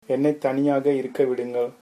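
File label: leave me alone, Tamil, male; என்னை தனியாக இருக்க விடுங்கள்; ennai thaniyaaga irukka vidungal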